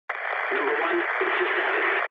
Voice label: eng chat, speech eng

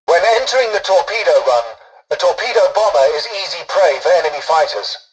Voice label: tutorial dialogue, english; War Thunder

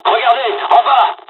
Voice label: dialog, dialogs french; War Thunder

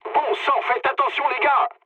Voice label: near waypoint mood low, speech fr; War Thunder

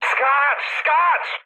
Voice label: bailout mood med, speech pol; War Thunder

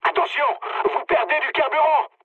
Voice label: tank damaged mood med, speech fr; War Thunder

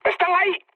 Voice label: enemy detected, mood med, speech; War Thunder

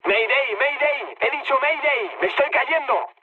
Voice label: aircraft destroyed mood med; War Thunder

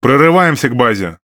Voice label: RU Speech Quick Commands Enemy Base; World Of Warships; USSR Ovechkin

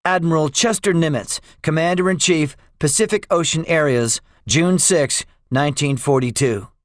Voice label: debriefing, english; midway; War Thunder

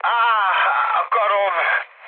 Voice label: damaged pilot, mood low, speech hun; War Thunder

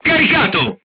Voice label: charged, crew tanks italian; War Thunder

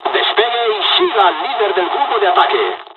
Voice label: jpn second wave takeoff, dialogs spanish; War Thunder

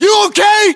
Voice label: status check, us

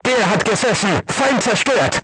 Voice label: voice message target destroyed, crew tanks german

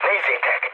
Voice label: enemy detected, speech hun; War Thunder

